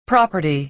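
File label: "property", American English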